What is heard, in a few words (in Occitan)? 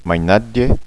"mainatge", gasconha